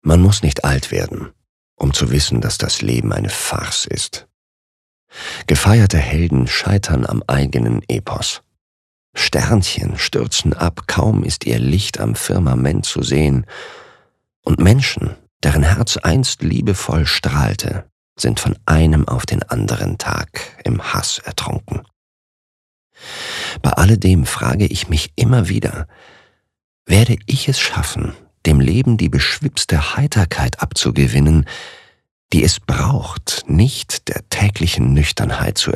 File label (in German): Sanft; Weltschmerz